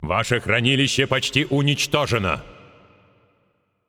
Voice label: Announcer Russian Match Under Attack Vault Nearly Destroyed